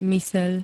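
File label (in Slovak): "myseľ", Slovak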